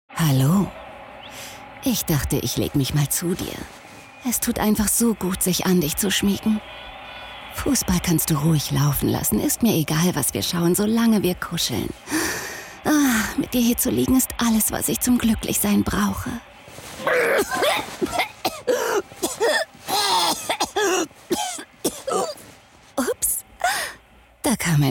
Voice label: Funk Werbung Rolle Tierhilfe; Dth. Tierhilfe

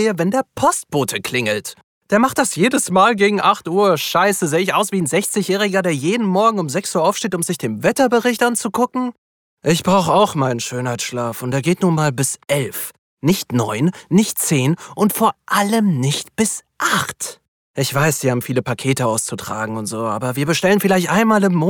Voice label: Spielszene Besserwisser Grosse Klappe, Eingebildet, Audio Drama, German; LOFT VOICES

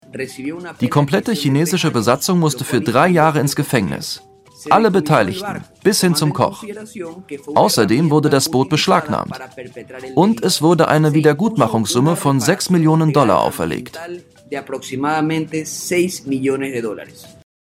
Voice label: Voiceover Anwalt idealistisch stolz; WDR5 Quarks; LOFT VOICES

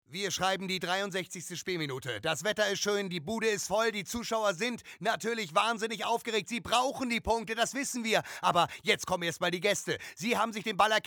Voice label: sportkommentar

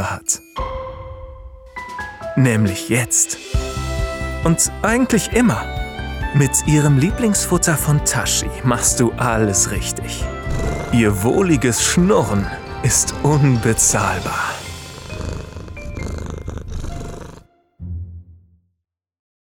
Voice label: werbung katze ruhig amuesiert feature voiceovers

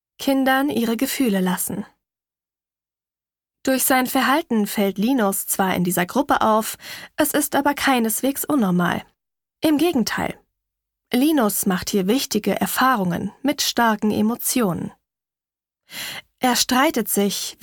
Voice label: Hoerbuchausschnitt Sachtext

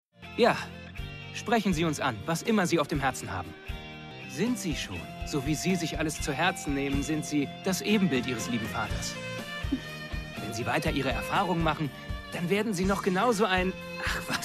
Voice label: Rolle Synchron jung

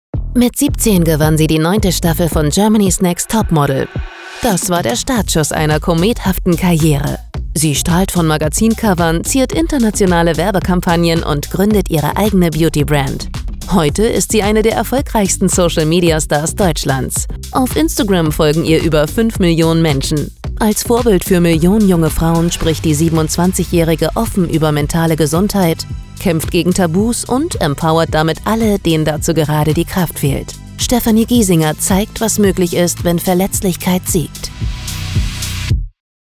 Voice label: Imagefilm

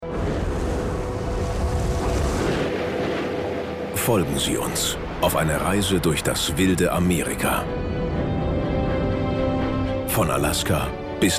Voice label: Doku Off Wildes Land

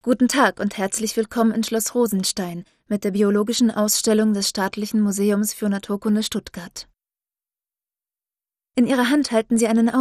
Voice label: AKUSTIKGUIDE; LOFT VOICES

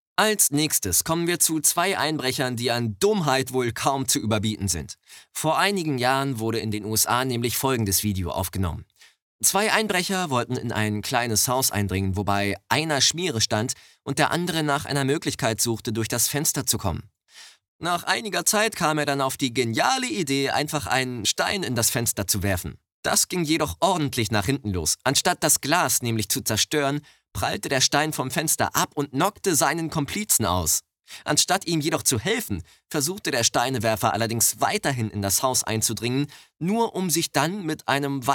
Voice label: Podcast, Narrative, News (Nachrichten), German; Wissenswert

